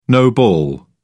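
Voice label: "no ball", British English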